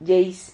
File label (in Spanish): "lleis", Silabas